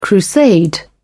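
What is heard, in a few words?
"crusade", British English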